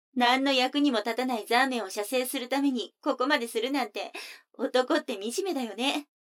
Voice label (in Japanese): 女の子 台詞, ASMR